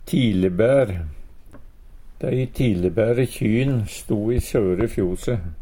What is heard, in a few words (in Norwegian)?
tilbaere, Numedalsmål; Substantiv hokjønn